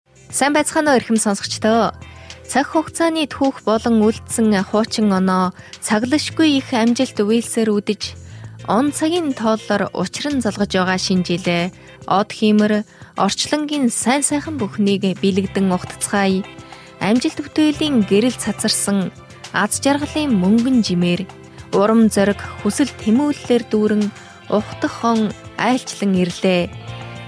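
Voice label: Mongolian, female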